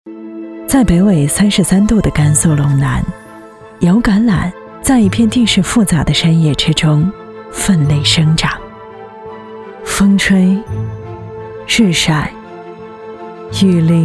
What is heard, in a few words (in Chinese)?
广告知性质感护肤化妆品; 一叶子